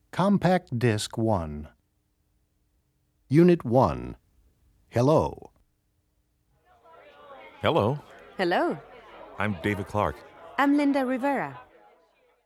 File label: Conversation; Conversation A B; new american streamline departures